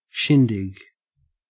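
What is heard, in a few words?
"shindig", Australian English; pronunciations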